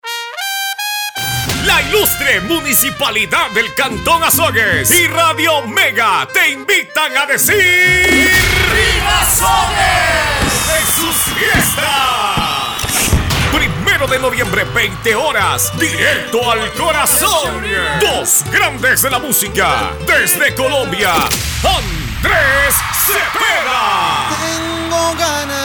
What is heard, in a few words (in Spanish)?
PROMOCIONAL; SHOW AZOGUES